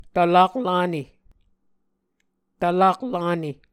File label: "tvlak lane", Muscogee